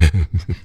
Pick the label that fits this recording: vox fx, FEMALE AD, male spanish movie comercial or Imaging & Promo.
vox fx